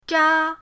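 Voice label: "zha", Mandarin pinyin, first tone; pinyindct-open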